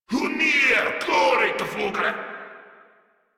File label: Cover Me, Grineer Male; Warframe